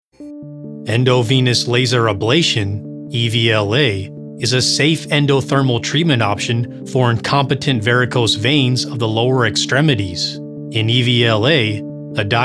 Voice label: E-Learning TECH MEDICAL